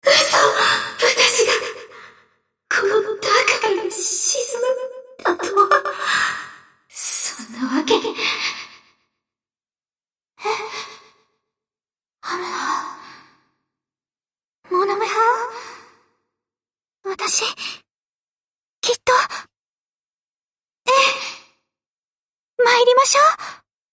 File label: Enemy Voice French Light Cruiser Damaged Sunk; Princess